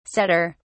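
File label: "sadder", English, British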